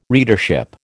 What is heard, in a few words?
"readership", American